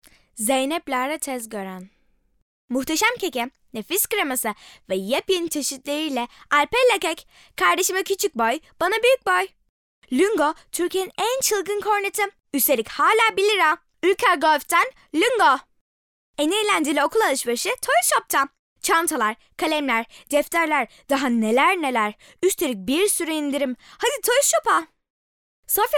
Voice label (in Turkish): Reklam